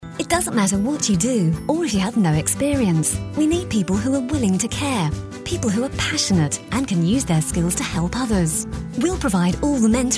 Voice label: RADIO COMMERCIALS